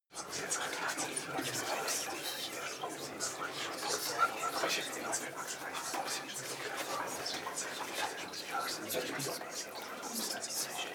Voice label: whisper german neutral long

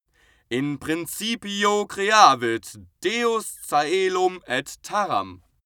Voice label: male pray latin

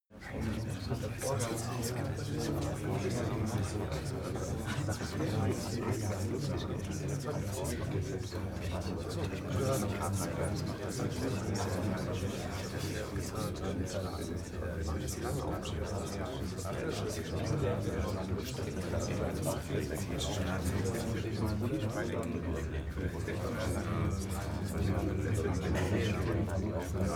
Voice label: talk german neutral quiet long

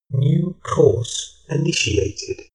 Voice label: shuttle male system status

